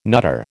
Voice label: "nutter", American

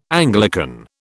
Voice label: "Anglican", British

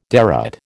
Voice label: "derate", American

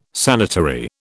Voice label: "sanitary", British

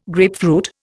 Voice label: "grapefruit", Indian

